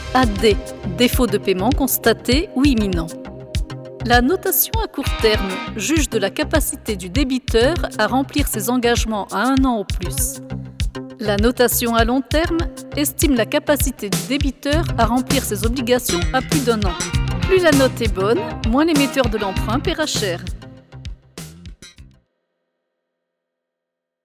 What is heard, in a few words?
ELEARNING FINANCE